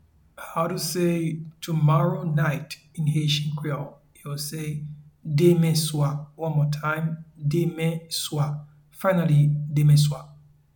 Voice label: Tomorrow night in Haitian Creole Demen swa audio translation